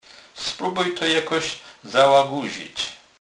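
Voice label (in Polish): "zalaguzec", Kurpie dialect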